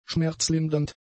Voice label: "schmerzlindernd", German; adjectives